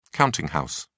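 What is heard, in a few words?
"counting house", British English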